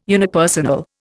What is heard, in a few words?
"unipersonal", Indian